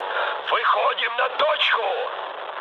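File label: Russian near waypoint mood high, speech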